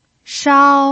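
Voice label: "shao" Mandarin Chinese, first tone, pinyin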